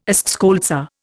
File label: "eschscholtzia", Indian